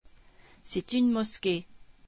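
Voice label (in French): "mosquée", French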